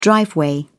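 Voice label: "driveway", British English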